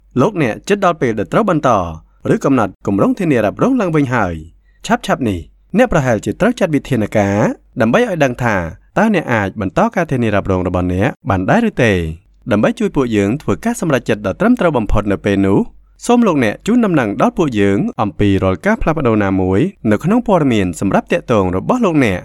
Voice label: Khmer Male Voice